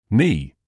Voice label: "knee", English